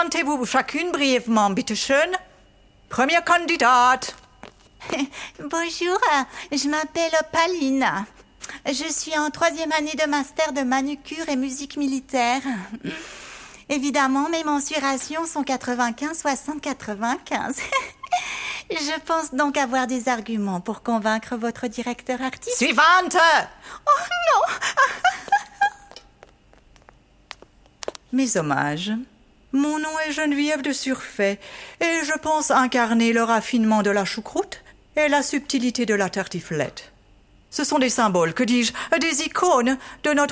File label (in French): Voix Off CREATION DE PERSONNAGES; Le casting